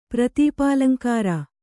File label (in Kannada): "ಪ್ರತೀಪಾಲಂಕಾರ", Kannada